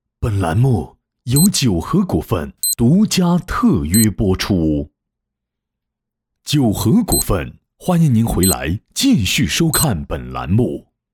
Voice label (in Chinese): 男 栏目标题; 九禾栏目